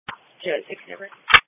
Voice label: Police Patrol 1 (Dispatch)